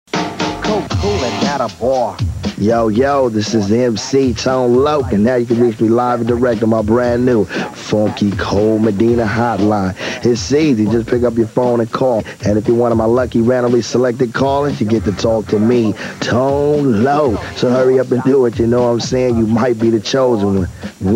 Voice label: 1-900 commercial; 1989; Phone Losers of America Media Pack 2017

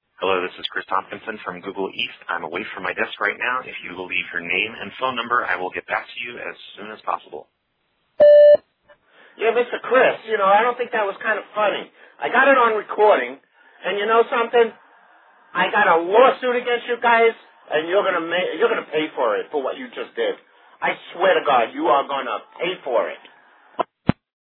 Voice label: grouchy old google guy, Prank Calls

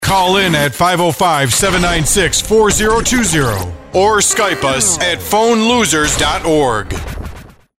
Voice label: announcer call in; Phone Losers of America Media Pack 2017; SPS Intro

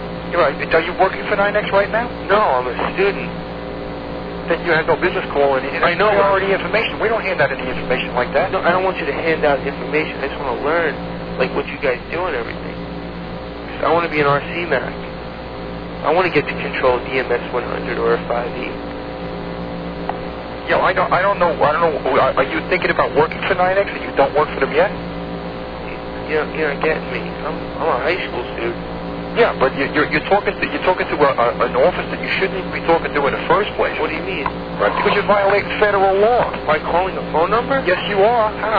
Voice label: arguing with some switch guy, Prank Calls

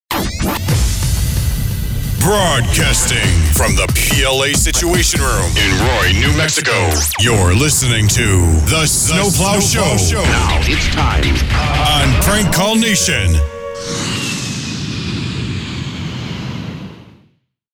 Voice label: Show Drop; PCN Snow Plow; Phone Losers of America Media Pack 2017

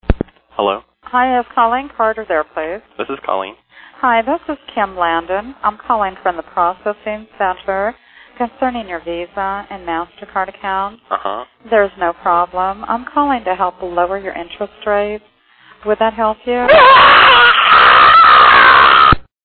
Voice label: telemarketer visa mastercard lady; Prank Calls; Phone Losers of America Media Pack 2017

